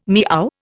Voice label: "meow", Indian